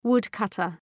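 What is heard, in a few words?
"woodcutter", English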